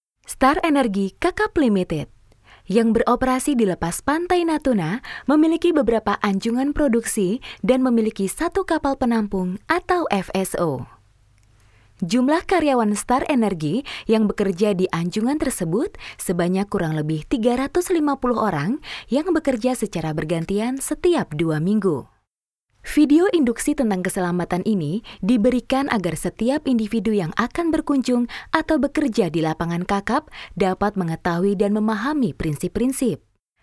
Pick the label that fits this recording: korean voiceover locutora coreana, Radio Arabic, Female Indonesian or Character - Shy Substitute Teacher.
Female Indonesian